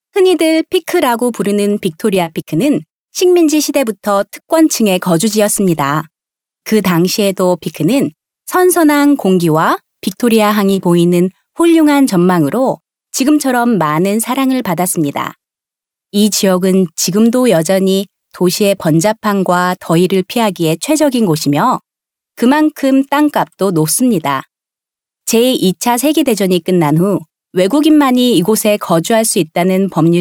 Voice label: Female Korean Other Projects

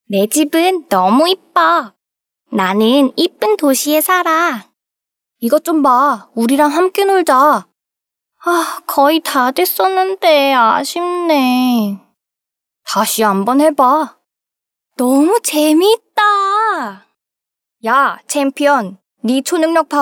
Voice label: Female Korean Toys

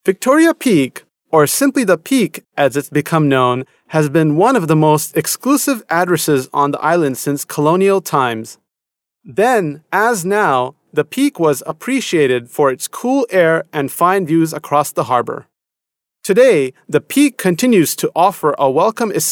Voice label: US male corporate

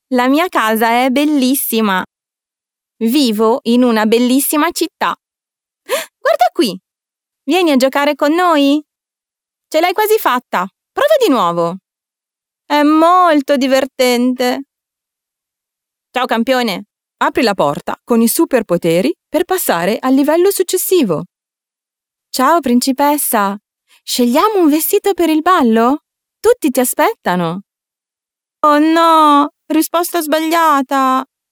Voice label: Female Italian Toys

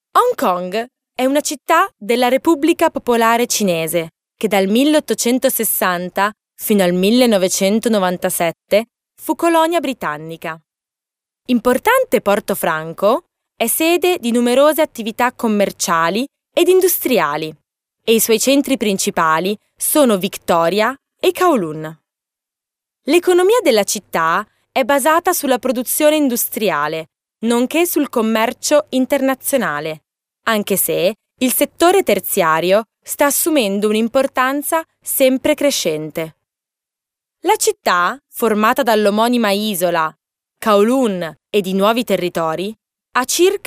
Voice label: Female Italian Other Projects

